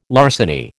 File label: "larceny", American